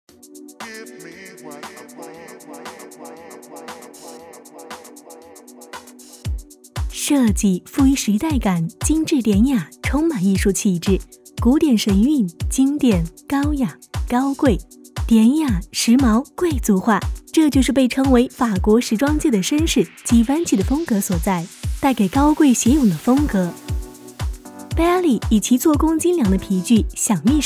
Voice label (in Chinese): 男装时装秀解说